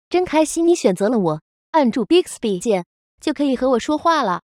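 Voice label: wake up skipped Chinese; Bixby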